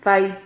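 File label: "fai", Spanish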